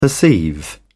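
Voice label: "perceive", British English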